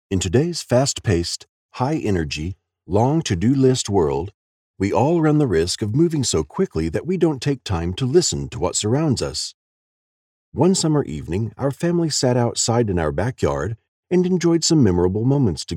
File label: Audiobook Non fiction